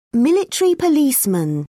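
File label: "military policeman", British English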